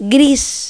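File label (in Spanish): "gris", Spanish; Pipo-Aprende musica con Pipo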